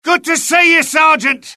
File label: alert, UK; mohaa; dialogue